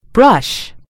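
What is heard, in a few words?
"brush", American English; gcompris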